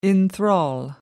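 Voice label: "enthrall", English, British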